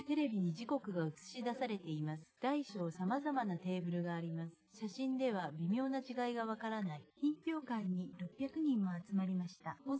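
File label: female instantaneous source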